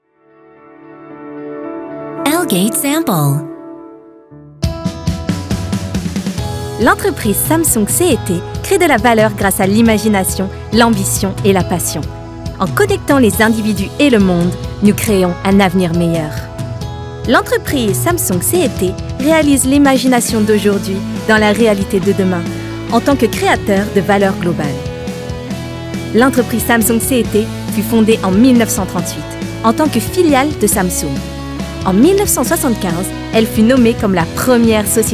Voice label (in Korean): 프랑스 여 밝은톤